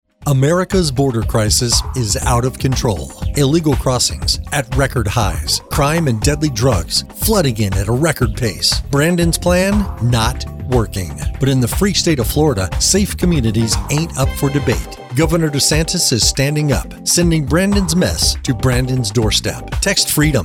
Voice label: Republican Voiceover, MALE; Political GOP Voices English MALE